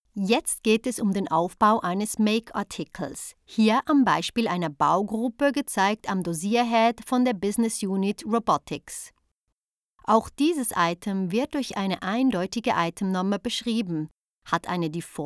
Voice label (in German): Hoerprobe interne Schulung, Sprecherin